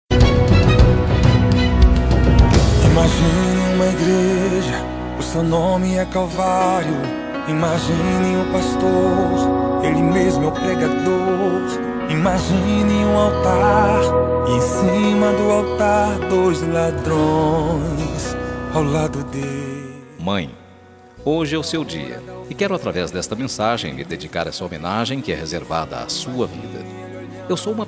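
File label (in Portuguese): aniversario mae masculino, telemensagem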